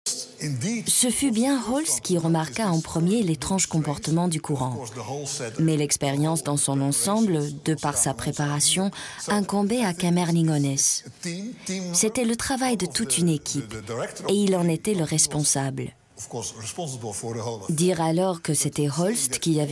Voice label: voice over; CNRS